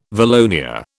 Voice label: "valonia", British

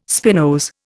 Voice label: "spinose", Indian

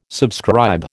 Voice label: "subscribe", American

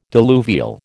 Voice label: "diluvial", American

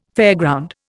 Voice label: "fairground", Indian

